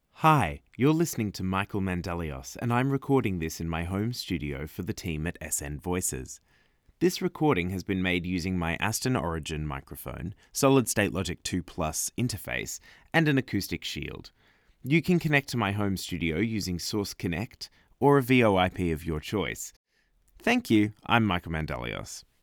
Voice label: mic